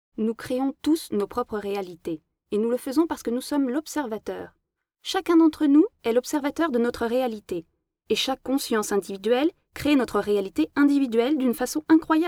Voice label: locutora francesa

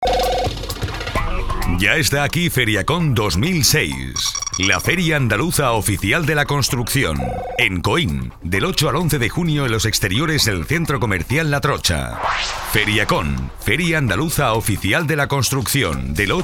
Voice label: locutor castellano

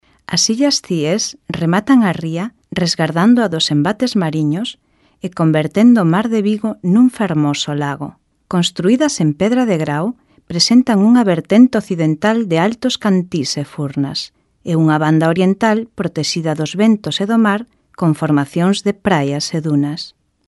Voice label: locutora gallega; galician voiceovers

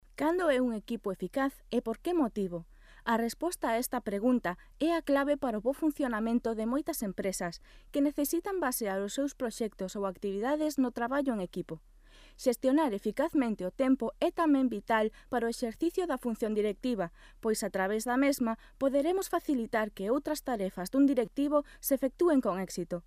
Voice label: locutora gallega; galician voiceovers

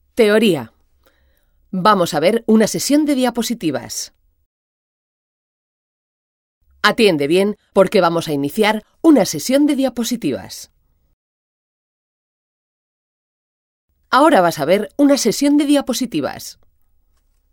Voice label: cd dvd interactivo, locuciones multimedia

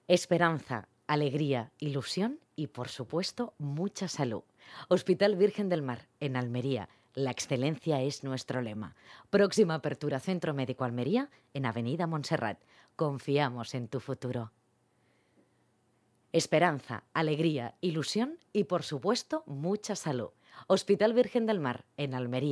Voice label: locutora

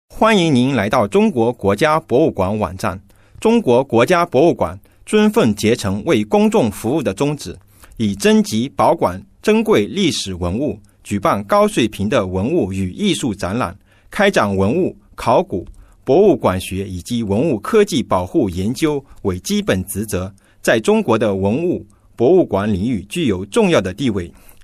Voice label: locutor chino; Male Chinese voice over

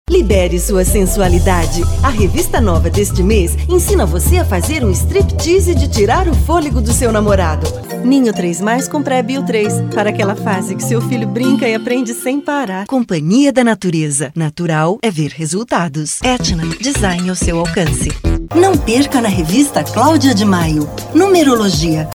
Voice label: locutora brasil; locutora de Brasil

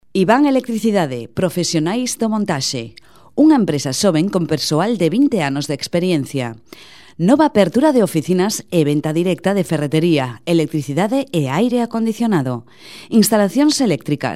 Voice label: locutora gallega; galician voiceovers

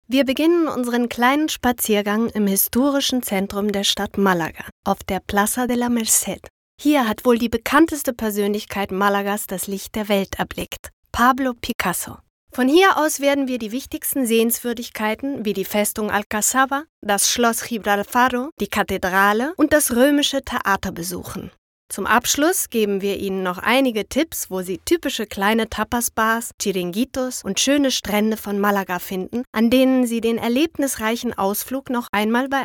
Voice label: locutora alemana; Deutsche Sprecherin

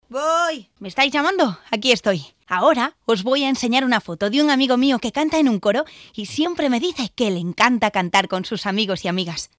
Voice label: locutora castellano

